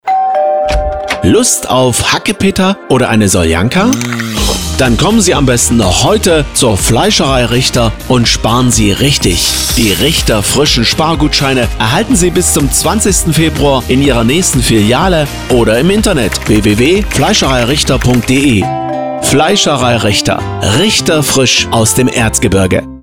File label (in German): Spot; Fleischerei-Richter; PSR